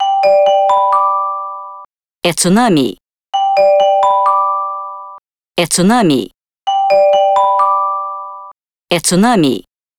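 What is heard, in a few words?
translated tsunami, Portuguese; iOS 26 Sounds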